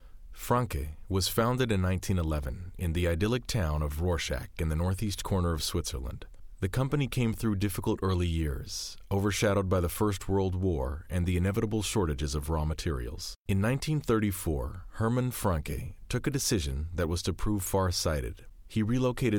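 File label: American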